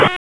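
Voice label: Police Northeast District Tactical 1, p25; Raleigh; wake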